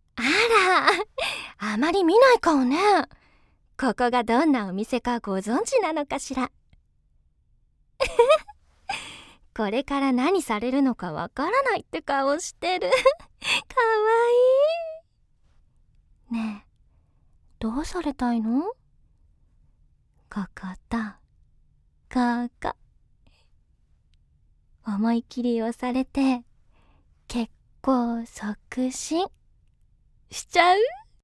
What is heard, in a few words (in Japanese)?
マッサージ店の色っぽい店員(大人 色気)